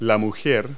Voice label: woman; Multi-Lingual Talking Picture Dictionary